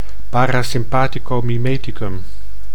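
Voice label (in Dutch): "parasympathicomimeticum", Dutch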